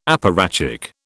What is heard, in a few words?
"apparatchik", British